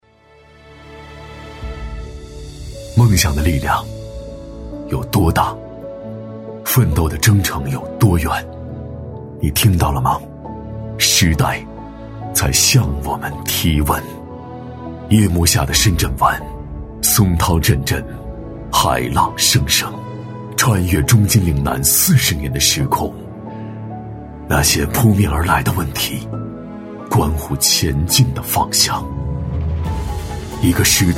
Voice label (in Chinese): 男 磁性走心; 温暖磁性 质感磁性; 答卷